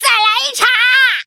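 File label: defeat, traditional Chinese; Cookie Run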